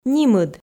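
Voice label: "нимыд", Komi, female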